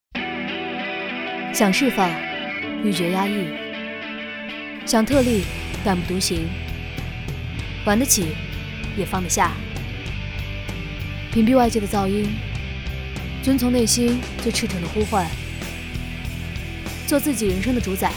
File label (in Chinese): 个性酷女生素人说话